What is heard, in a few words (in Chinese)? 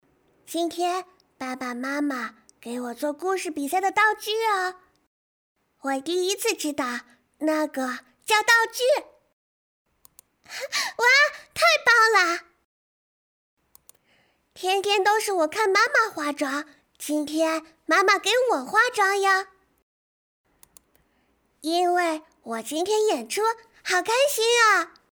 试音 妹妹